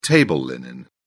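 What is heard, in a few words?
"table linen", British English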